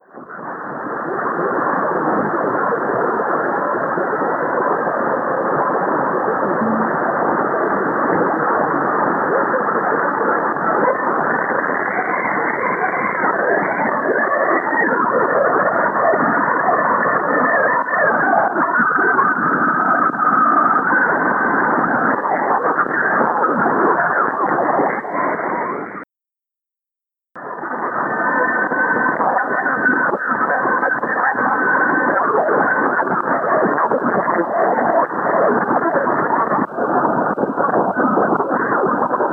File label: 580kHz Live recorded; KMJ